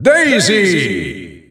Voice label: German Announcer; Daisy; SSBU